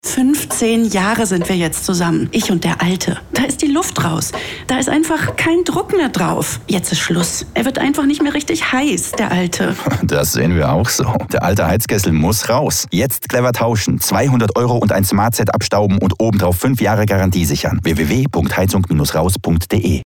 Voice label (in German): Dynamisch, W